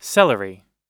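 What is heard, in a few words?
"celery", American English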